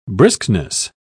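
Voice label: "briskness", British English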